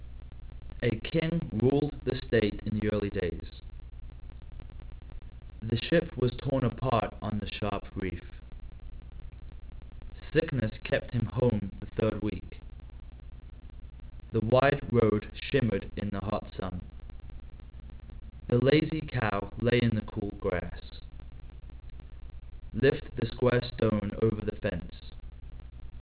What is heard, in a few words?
male